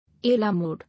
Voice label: "A la mode", English